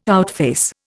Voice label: "outface", Indian